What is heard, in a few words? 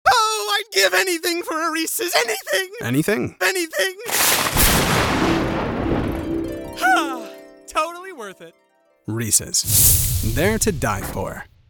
Commercial; Reese's They're To Die For